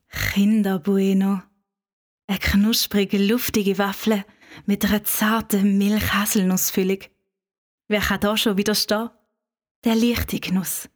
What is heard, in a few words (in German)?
Werbung Schweizerdeutsch